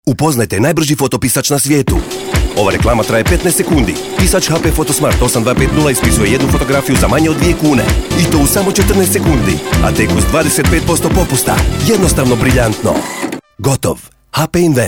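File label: croatian male commercial; voice demos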